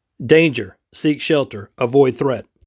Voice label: Danger English only